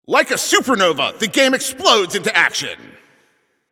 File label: Game Start, Announcer